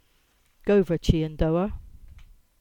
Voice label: Cornish; Chyandour Brook